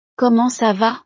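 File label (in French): "comment ca va", French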